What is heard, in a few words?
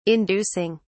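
"inducing", British English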